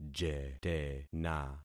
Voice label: "jetena", concat syllables; Affixing experiment